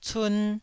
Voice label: "cun1", Taiwanese